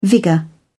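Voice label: "vigour", English, British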